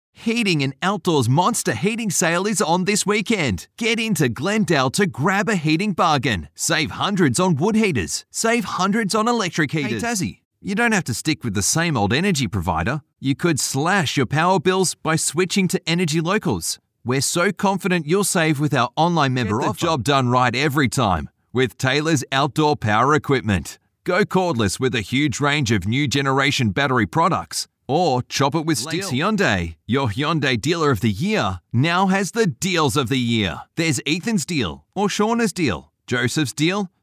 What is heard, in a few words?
hard sell, voice-overs